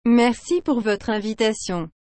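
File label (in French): "Merci pour votre invitation", French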